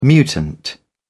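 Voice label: "mutant", British English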